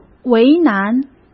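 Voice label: "为难", Mandarin Chinese; chinese flashcards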